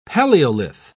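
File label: "palaeolith", British English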